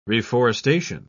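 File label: "reforestation", English, uk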